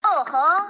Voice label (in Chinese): "哦豁", voice